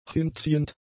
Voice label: "hinziehend", German; adjectives